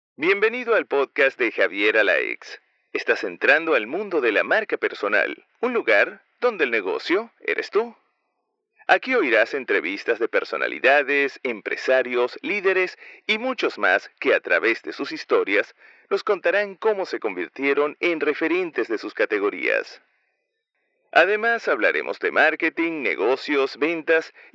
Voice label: spanish latin american podcasts